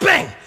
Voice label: "bang", Voice

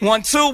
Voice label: "1 2", Voice; numbers and letters

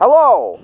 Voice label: "hello", pop art; Voice; introductions; hello hi hey

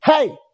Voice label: "hey", voice

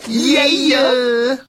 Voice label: "yeah", yeah uh

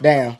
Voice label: "damn", expletives

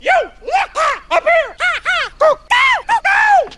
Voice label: yoo upere ha ha wagotty hahow, gibbrish; Voice

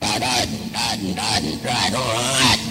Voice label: ba ba ba dradden, gibbrish

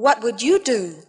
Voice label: what would you do, female; questions

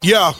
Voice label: "yo", Voice

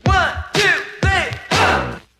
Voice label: 1 2 3 pop synth; numbers and letters

